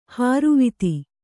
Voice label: "ಹಾರುವಿತ್ತಿ", kannada